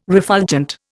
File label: "refulgent", Indian